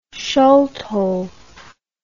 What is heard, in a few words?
"sciolto", English